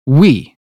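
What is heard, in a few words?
uk phonetics word "we", British; 英语音标发音（剑桥版）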